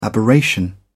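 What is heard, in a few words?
"aberration", British English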